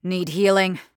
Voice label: Healing; Hunter; VGS; Realm Royale

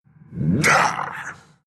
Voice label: win, ru; dota2

